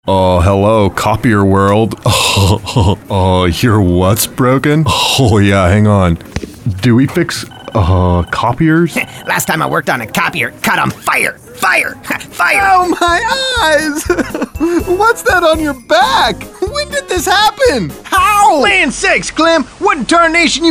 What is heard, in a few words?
Commercial Character